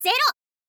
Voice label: "zero", info girl